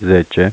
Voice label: "zece" Romanian